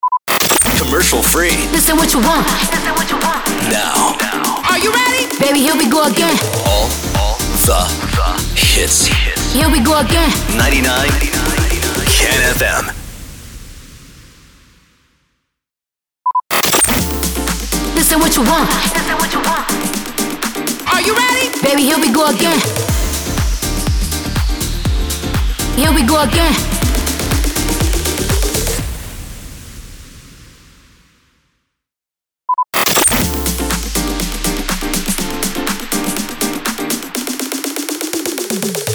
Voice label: SWEEPER MUSIC REJOIN